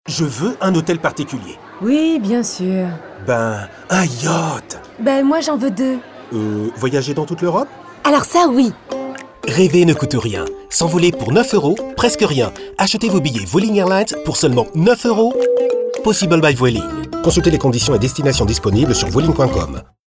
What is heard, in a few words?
male french hotel comercial; ideasonora